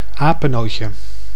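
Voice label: "apenootje", Dutch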